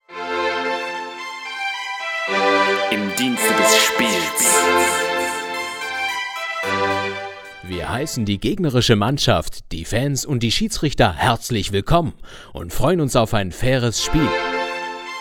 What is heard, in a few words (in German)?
Herzlich willkommen Durchsage